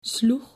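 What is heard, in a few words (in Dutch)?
"sloeg", Dutch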